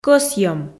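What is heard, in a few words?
"кӧсйӧм", Komi, female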